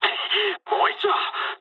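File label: player killed enemy mood med, speech japanese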